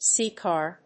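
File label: "C-car", English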